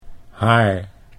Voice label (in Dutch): "haar", Dutch